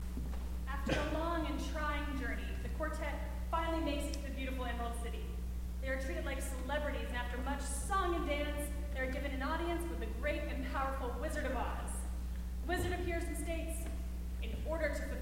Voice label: Narration